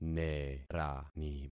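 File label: "nerani", concatenated syllables; Affixing experiment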